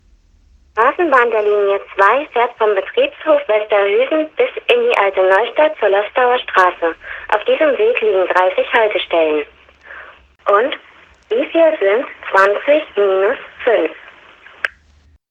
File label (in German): Anrufbeantworter